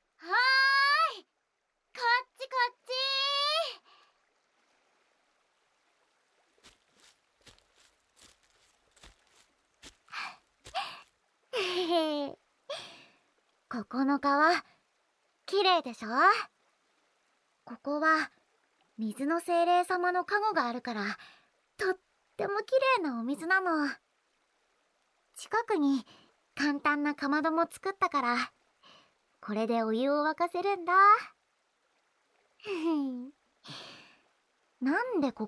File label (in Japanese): 川辺で全身オイルマッサージ, ASMR; SEあり; 異世界マッサージ; ケモミミ少女